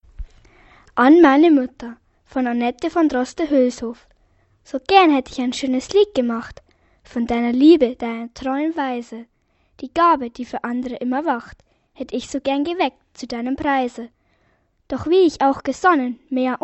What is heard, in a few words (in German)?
Muttertag Standarddeutsch